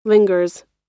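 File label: "lingers", American English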